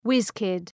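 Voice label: "whizz kid", English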